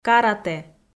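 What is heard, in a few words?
"каратэ" Komi, female; kpv audio dict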